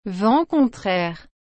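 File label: "vent contraire", French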